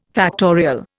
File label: "factorial", Indian